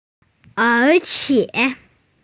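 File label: "and also", female; er3qie3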